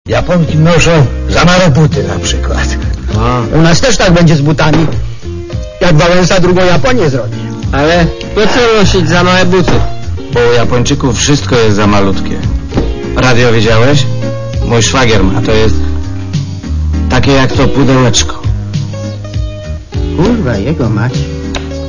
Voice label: male